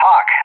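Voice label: "Hawk", English; JTAC; AirDefence; DCS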